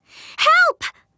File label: VO en